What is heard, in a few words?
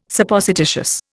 "Supposititious", Indian